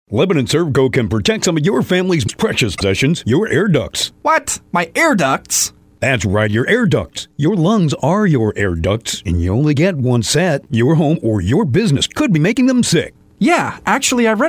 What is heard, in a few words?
commercial; sales; servco